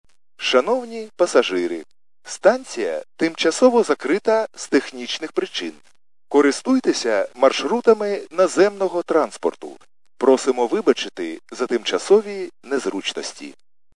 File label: khs st male, subway station